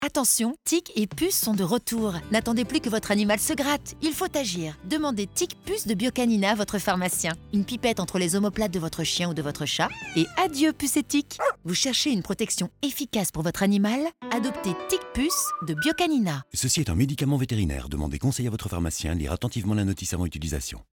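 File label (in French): Tonique promo, voix off féminine; Biocanian; Complice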